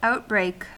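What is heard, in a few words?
"outbreak", American English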